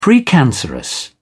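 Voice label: "precancerous", British English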